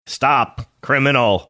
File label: saying stop criminal robotic manner